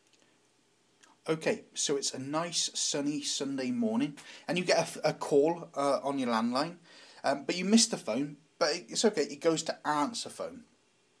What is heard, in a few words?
answerphone message; santander